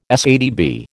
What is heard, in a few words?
"SATB", American